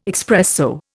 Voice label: "expresso", Indian

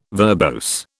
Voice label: "verbose", British

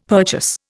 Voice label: "purchase", Indian; Dictionary

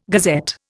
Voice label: "gazette", Indian

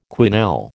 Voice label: "quenelle", American

